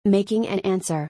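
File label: "Making an answer", English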